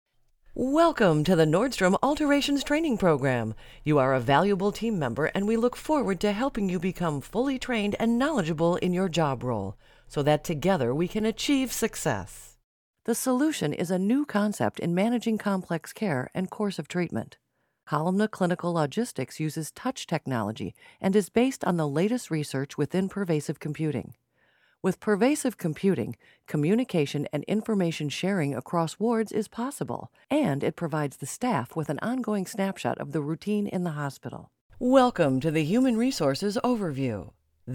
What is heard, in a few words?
elearning